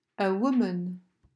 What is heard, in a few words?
A woman